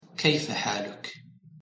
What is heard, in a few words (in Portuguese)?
pronuncia de tudo bem arabic